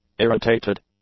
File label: "irritated", english; adjectives